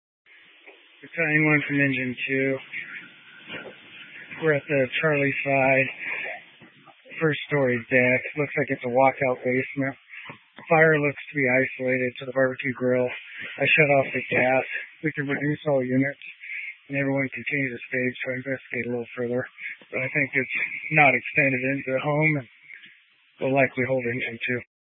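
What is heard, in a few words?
Incident Tactical 2, Scanner audio recording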